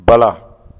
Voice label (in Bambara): "bala", Bambara